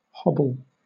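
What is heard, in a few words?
"hobble", British English; pronunciations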